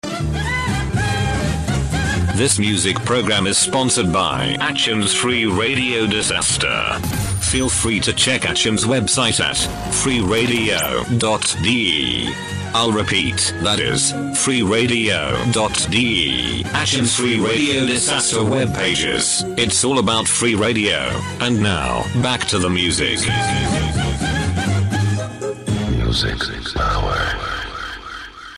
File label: PROMO SPONSORING; 6070 khz